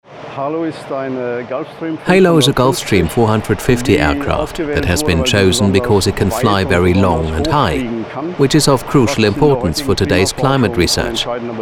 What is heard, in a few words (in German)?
sprecher englisch; oton